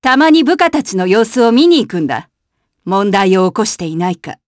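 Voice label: vox Japanese; Demia; kingsraid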